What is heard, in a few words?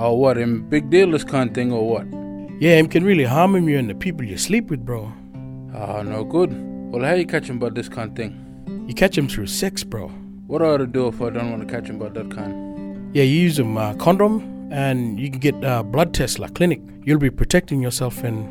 Syphilis Male top end Kriol; TEABBA